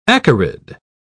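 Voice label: "acarid", English, British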